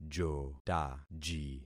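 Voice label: "jotaji", concatenated syllables; Affixing experiment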